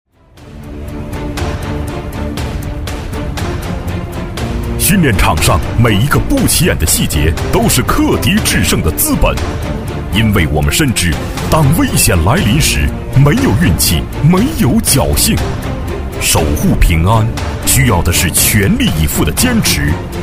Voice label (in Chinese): 男,专题; 东莞公安蓝军